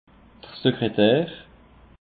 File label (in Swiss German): "sekretar", alsace; peche